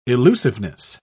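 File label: "illusiveness", British English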